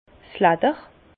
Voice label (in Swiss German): "ledder", Bas Rhin; vin; cuir